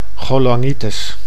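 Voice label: "cholangitis", Dutch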